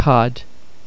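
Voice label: "cad", Irish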